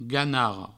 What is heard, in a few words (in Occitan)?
"ganarraganarro", provençal; vocabulaire fondamental du provencal